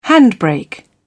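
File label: "handbrake", British English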